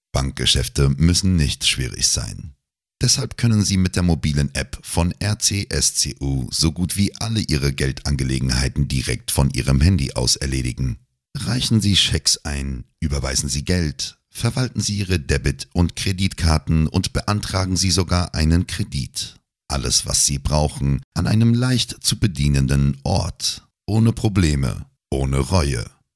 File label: Sincere